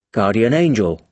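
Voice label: "guardian angel", British English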